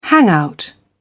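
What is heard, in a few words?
"hangout", British English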